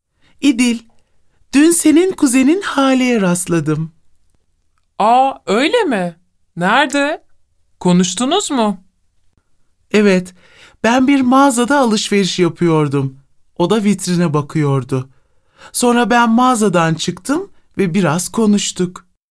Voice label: dialog